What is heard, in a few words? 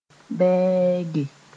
"bɛɛge", Mooré; offensive